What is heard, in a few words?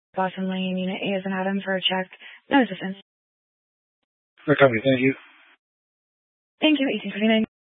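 Sheriff Dispatch, Scanner audio recording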